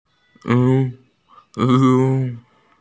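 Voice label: "football", Mandarin; zu2qiu2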